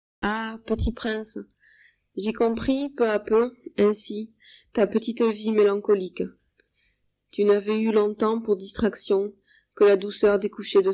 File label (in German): Franzoesisch Carcassonne